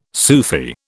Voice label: "Sufi", British

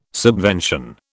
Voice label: "subvention", British

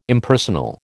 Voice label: "Impersonal", American

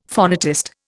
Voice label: "phonetist", Indian; Dictionary

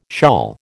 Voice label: "shawl", American

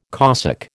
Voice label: "Cossack", American

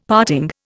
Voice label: "parting", Indian; Dictionary

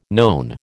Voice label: "known", American

